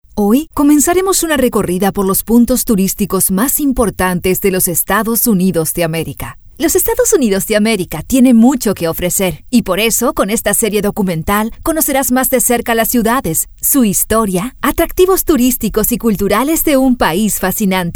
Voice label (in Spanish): documentales